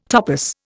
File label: "topos", Indian